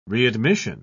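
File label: "readmission", British English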